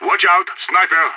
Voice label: sniper spotted, radio; SAS